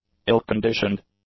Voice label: "ill-conditioned", English; adjectives